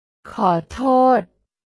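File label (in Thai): "ขอโทษ", Thai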